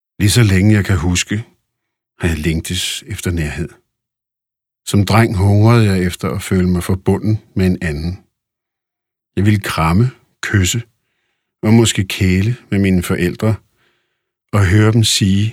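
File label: Danish Audiobook Male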